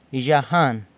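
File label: "Yzhajan", San Lucas Quiaviní Zapotec; Goodbye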